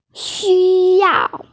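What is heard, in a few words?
"xuyao", Mandarin Chinese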